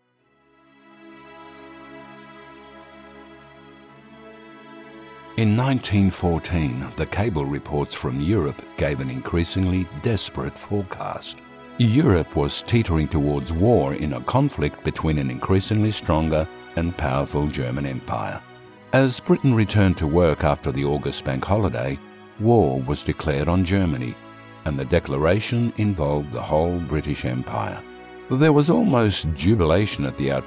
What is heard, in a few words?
Documentary